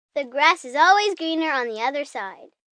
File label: Grass is Greener, Sayings, 1 girl, 7 yrs; Talking Sound Effects